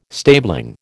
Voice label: "stabling", American

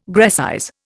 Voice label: "Grecize", Indian

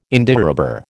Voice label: "Indiarubber", American

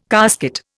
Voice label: "casket", Indian

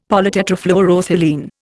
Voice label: "polytetrafluoroethylene", Indian; Dictionary

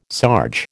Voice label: "sarge", American

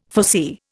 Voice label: "foresee", Indian

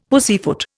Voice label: "pussyfoot", Indian; Dictionary

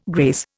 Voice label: "grace", Indian

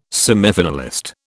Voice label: "semifinalist", British

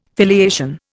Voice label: "filiation", Indian

